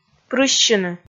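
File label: "прыссьыны", Komi, female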